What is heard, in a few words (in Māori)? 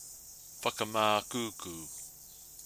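"whakamakuku", Māori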